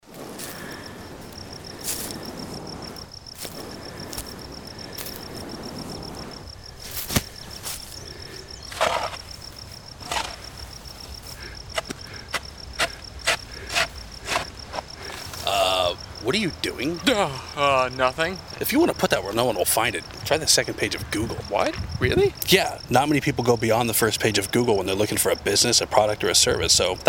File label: Radio ad